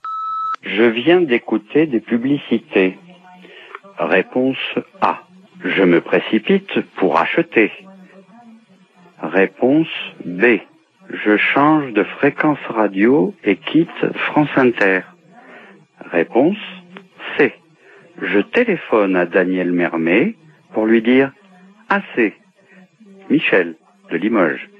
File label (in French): Pub répondeur; France Inter